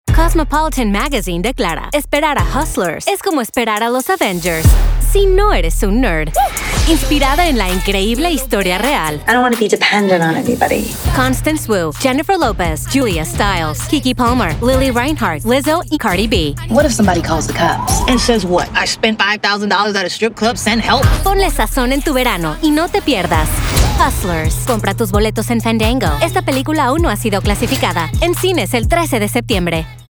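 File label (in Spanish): Trailer Spanish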